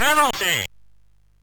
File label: MOS6581R2, Electronic Speech Systems; Slapshot